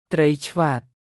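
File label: "ត្រី ឆ្វាត", Khmer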